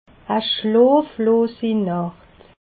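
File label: "nacht", Alsatian, Haut Rhin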